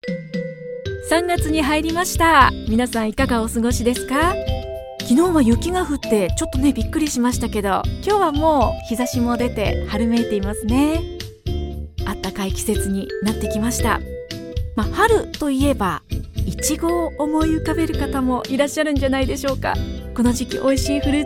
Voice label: japanese radio imaging